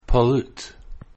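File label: "pollute", English word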